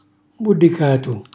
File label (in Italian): "mullicatu", Calabrese; con la mollica; cucina calabrese; Glossario